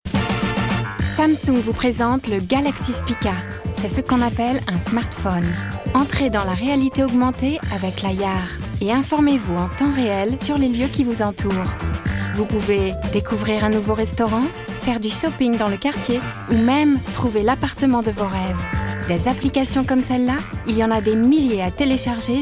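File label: French Commercial; GoLocalise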